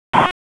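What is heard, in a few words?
Police Southwest District Dispatch, p25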